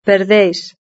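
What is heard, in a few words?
"perdéis" Spanish; verb-conjugator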